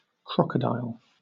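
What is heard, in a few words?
"crocodile", British English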